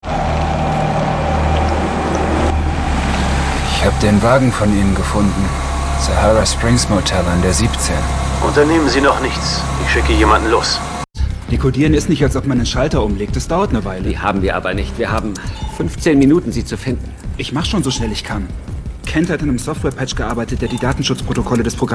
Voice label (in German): synchron; synchronreel